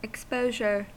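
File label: "exposure", US English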